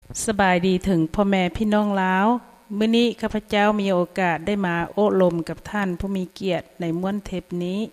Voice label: Lao

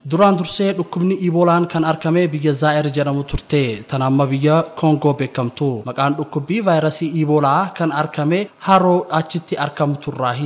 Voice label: Oromo male

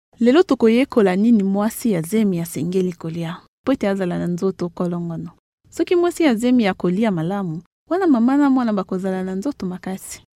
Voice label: Lingala Female

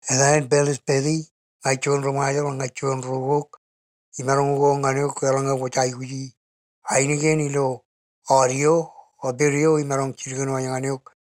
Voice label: Marshallese

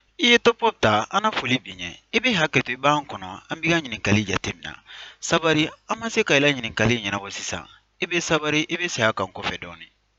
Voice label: Mandinka

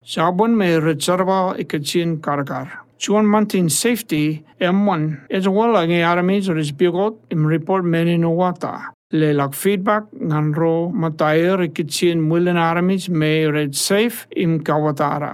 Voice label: Marshallese elearning

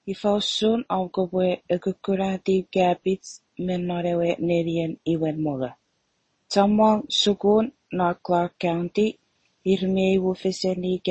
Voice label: CHUUKESE

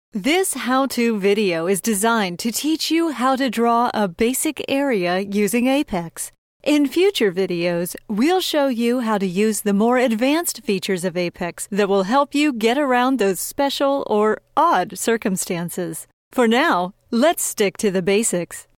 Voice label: US narration

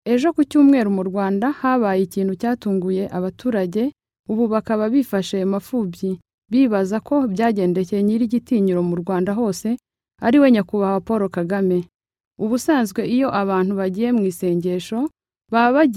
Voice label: Kinyarawanda Female

